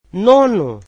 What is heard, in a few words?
portuguese ninth